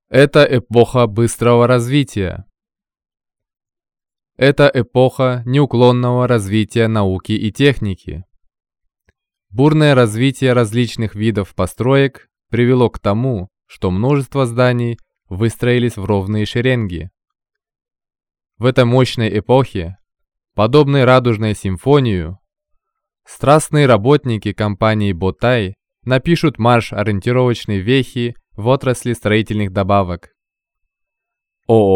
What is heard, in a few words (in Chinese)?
外籍俄语男; 这是一个飞速前进的时代